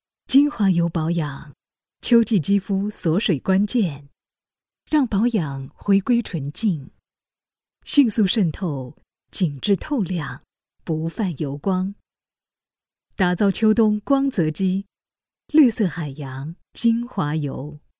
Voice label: female chinese voice over sales, mandarin

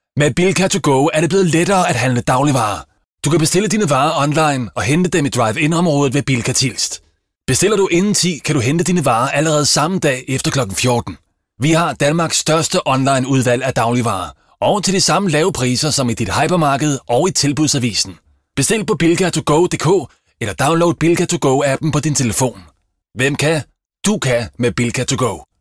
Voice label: male danish voice over sales

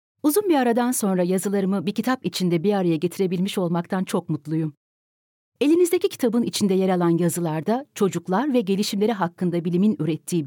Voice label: female turkish voice over narrative

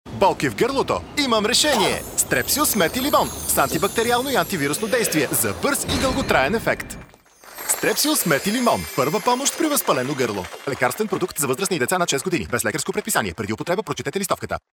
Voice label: male bulgarian voice over sales

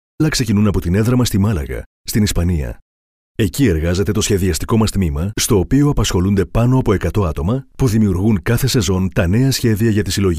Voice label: male greek voice over talent narrative

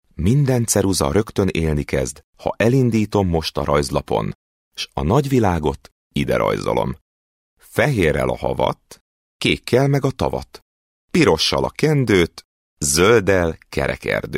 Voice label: male hungarian voice over talent narrative

